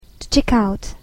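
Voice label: "to check-out", English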